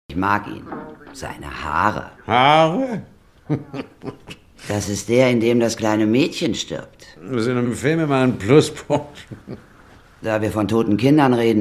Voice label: Synchron, Lip-Sync (Synchron), German; Three Billboards outside Ebbing, Missouri

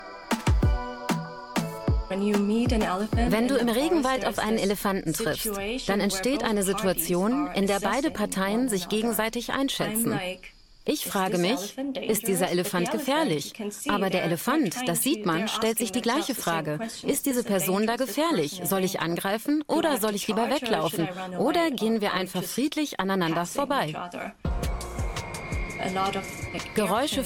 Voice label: Voice Over Doku; LOFT VOICES

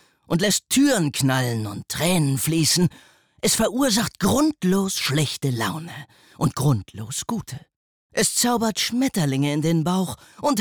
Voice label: werbung wort off; globus

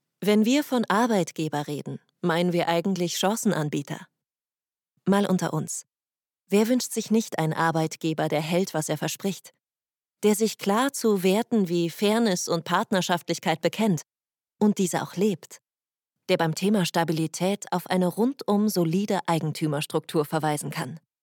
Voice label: Markenstimme; Union Investment

